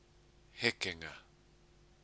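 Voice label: "hekenga", Maori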